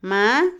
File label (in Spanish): decir, Otomí, mujer; Habla; INALI